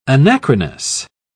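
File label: "anachronous", British English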